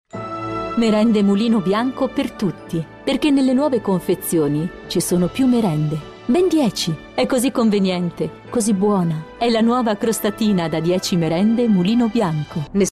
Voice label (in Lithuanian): (MOT) Italu balsas; moteris